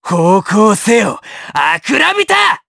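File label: Skill, Japanese; Neraxis; kingsraid; jp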